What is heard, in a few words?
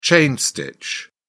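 "chain stitch", British English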